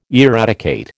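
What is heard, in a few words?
"eradicate", American English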